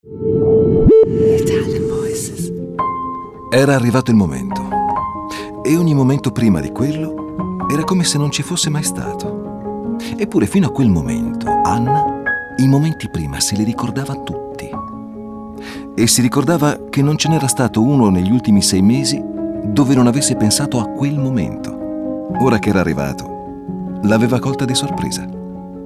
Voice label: ITALIAN VOICES, male voices; Naked Reel